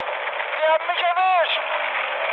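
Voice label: German aircraft destroyed mood high, speech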